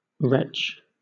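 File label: "retch", British English; pronunciations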